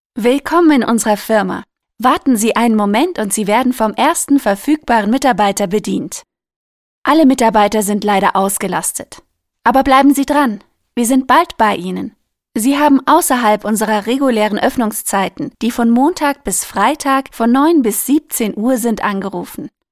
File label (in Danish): DE UFORMEL KVINDE; Stemmebasen